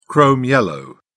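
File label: "chrome yellow", British English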